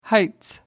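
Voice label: "heights", British English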